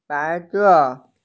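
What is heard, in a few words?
"baise", Mandarin Chinese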